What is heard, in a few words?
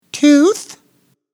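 "tooth", English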